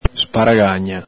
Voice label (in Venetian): "sparagagna", Veneto